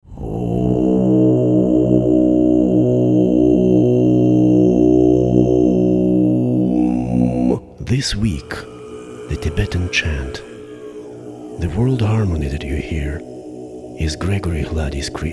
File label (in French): démo anglais